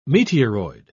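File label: "meteoroid", English, British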